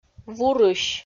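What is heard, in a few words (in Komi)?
"вурысь", Komi-Zyrian, female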